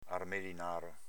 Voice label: "armelinaro", Veneto; armelināro s.m. albicocco

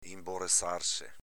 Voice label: "inborezarese", dialetto veneto

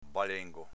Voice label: "balengo", dialetto veneto

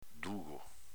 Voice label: "dugo", dialetto veneto; s.m, agg. 1. gufo.; 2. stupido

